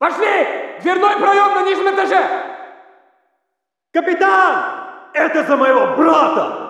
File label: walla rus mountain chatter; modern warfare 2; amb walla